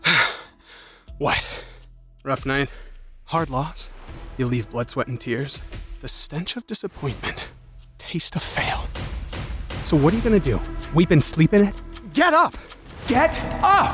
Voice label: Commercial; Nike Never Stay Down